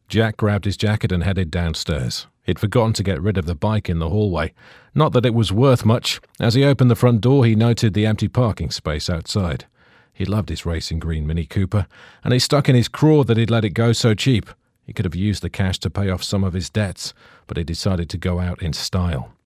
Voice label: audiobook